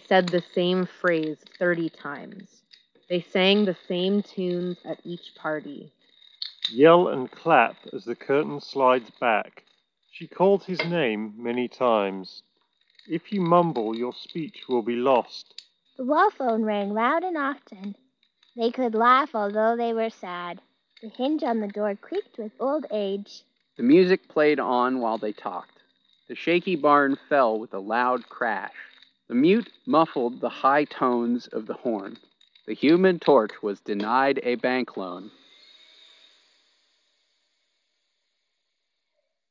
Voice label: Bose Noise Cancelling Headphones 700 Office microphone sample